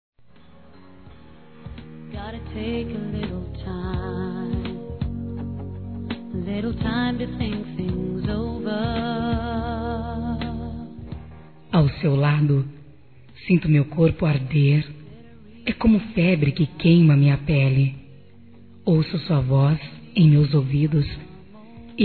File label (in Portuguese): PICANTE F; Dia Dos namorados; fem; Telemensagens 2017